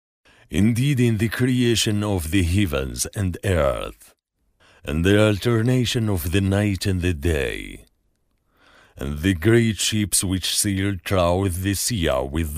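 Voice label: Arabic, Saudi Arabia, male, voice over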